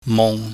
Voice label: "mung", Chinese